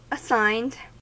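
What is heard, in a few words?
"assigned" English, US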